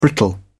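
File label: "brittle", British English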